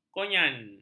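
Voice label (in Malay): "konyan", Malay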